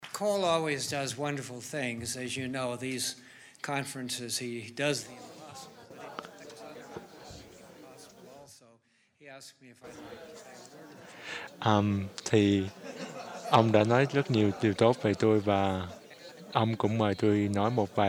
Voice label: Vietnamiese; vietnamese; iclrs symposium 2009